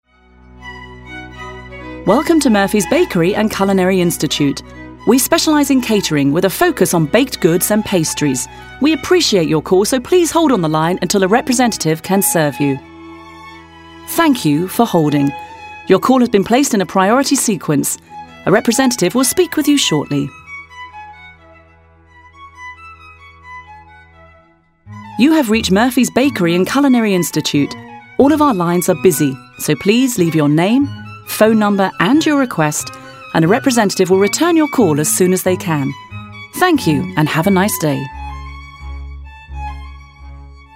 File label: UK English IVR w Background Music, Female